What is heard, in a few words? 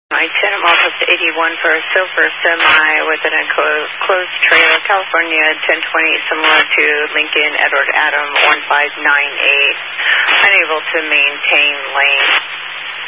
Highway Patrol, Phoenix Metro West Area, scanner